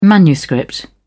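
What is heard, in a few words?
"manuscript", English, British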